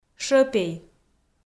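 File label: "шӧпей", Komi, female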